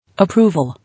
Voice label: "Approval", English